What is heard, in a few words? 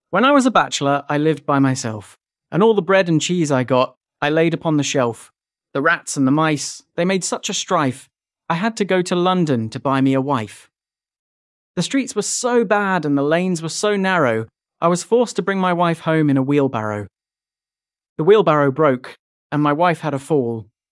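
When I Was a Bachelor male british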